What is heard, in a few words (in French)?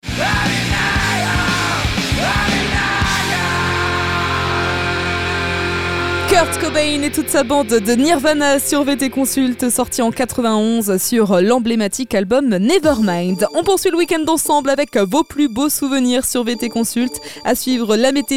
maquette adulte